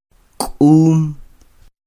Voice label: "k'um", Quiché; calabaza; squash; INALI; UTexas; CHQETA